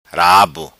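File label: "Rabo", patua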